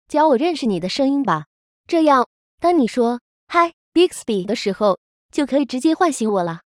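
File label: wake up ready Chinese; Bixby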